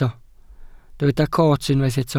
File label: "coot", Welsh